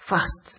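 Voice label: "fast", Nigerian Pidgin, male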